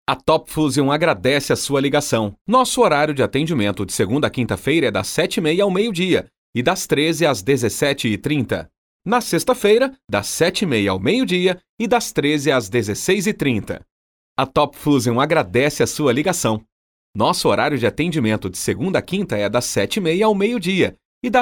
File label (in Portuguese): Portuguese Brazil